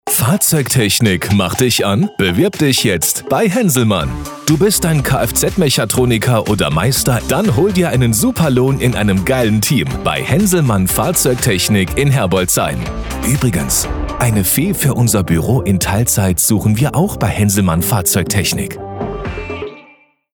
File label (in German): Funkspot Fahrzeugtechnik; Henselmann